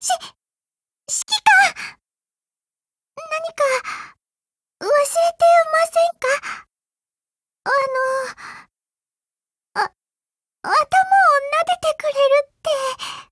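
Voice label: Affinity, Japanese; Harpsy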